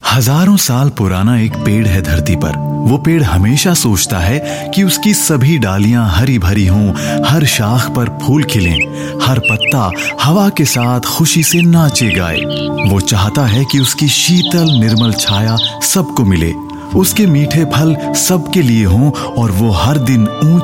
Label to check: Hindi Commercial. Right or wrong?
Right